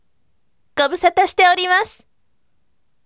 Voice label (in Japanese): voice "gobusata shitemasu" akarume